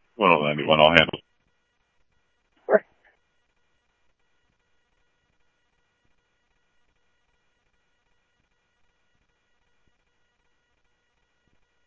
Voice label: Scottsdale Police, scanner audio; H-Scottsdale; SCTSDLE PD - PD2 ScottsdalePolice